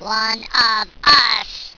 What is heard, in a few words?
one of us, squeaky